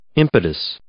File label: "impetus", English